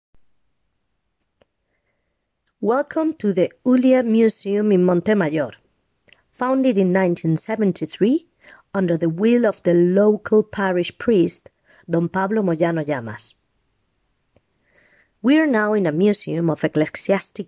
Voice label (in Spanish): RECEPCION INGLES